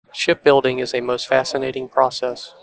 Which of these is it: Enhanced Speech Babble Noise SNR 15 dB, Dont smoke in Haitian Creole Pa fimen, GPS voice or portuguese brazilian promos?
Enhanced Speech Babble Noise SNR 15 dB